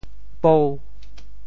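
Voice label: "pou5", Teochew; 潮州; 大蓬草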